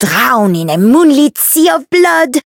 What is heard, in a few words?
Voice variable chant; harle